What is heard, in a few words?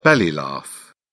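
"belly laugh", British English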